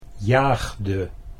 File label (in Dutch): "jaagde", Dutch